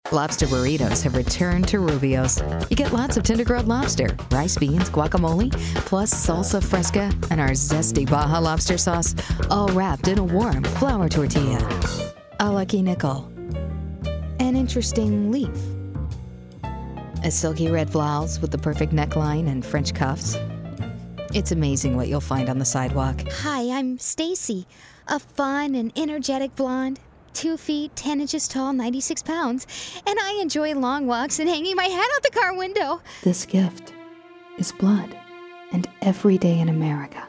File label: commercial mix mono